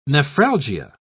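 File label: "nephralgia", British English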